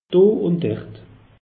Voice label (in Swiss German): "doundert", alsace; meteo